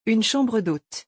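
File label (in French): "une chambre d hote", French; vocabulaire; le lexique des vacances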